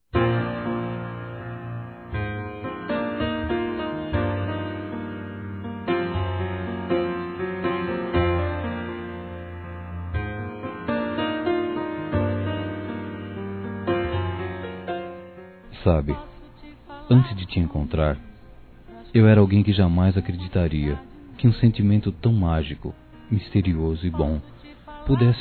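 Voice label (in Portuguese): Namorados, male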